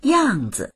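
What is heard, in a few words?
"yang4zi", Chinese; 样子; 様子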